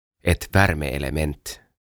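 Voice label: "Ett Värmeelement", Swedish; Patteri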